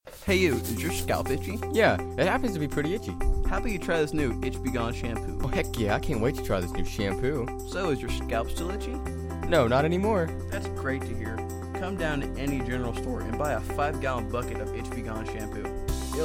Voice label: commerical